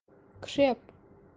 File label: "Khreap" crack fissure kashmiri